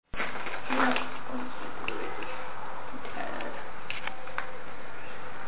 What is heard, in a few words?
old lady im so weary and tired male in back ill wait